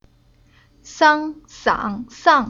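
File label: "Sang", Chinese syllable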